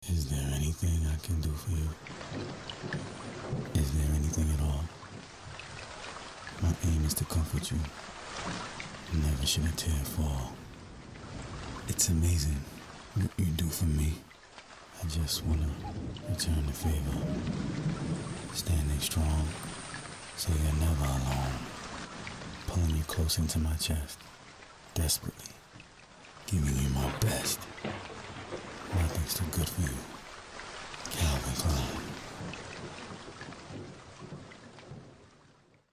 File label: Commercial; VaLentine; Calvin Klein